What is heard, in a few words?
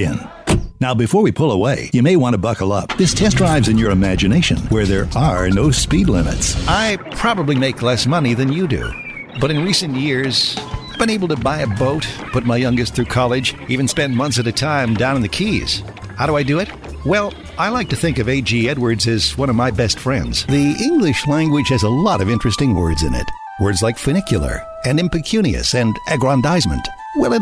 Commercial